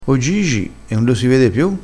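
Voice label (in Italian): "malaccio", crespinese dialettale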